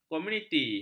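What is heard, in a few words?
"komuniti", Malay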